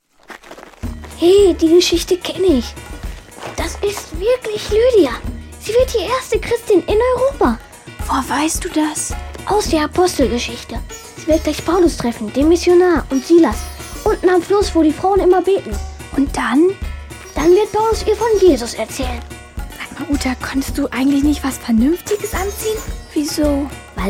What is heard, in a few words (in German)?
Hoerszene; Die Gute Nachricht